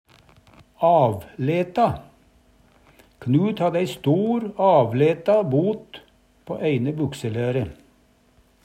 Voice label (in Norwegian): "avleta", Numedalsmål; Adjektiv